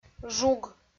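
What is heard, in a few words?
"жуг", Komi-Zyrian, female; audio dict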